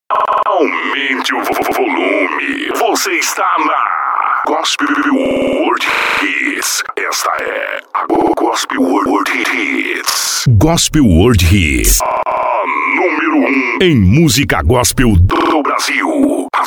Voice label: Vinhetas; Jovem Pan; Lauro Muller; Golpel Word Hits

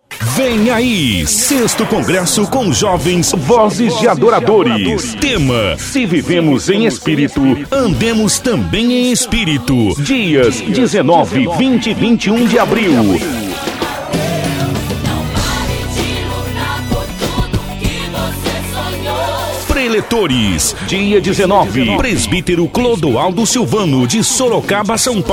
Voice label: Locutor Igreja; Concresso vozes de adoradores

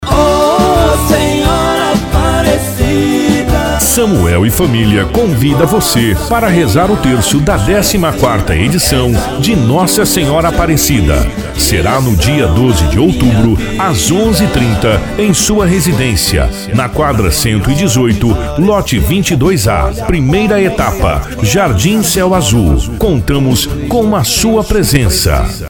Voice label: Locutor Igreja Rezar o terco; Rezar o terco da nossa senhora aparecida

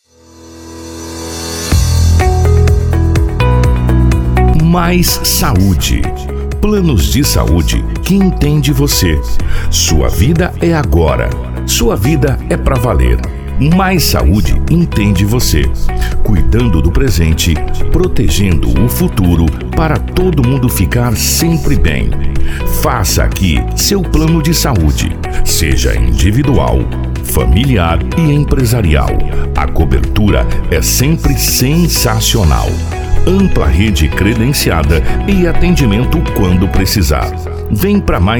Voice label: Locutor Propaganda; Mais Saude

